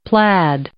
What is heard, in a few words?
"plaid" noun